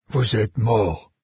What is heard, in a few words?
die, NARRATOR, fr; Fate